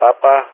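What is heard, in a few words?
"Papa", spoken; Ka-50; Alphabet; Papa-begin; Speech; DCS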